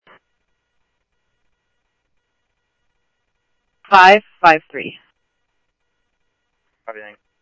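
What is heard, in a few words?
police department, scanner audio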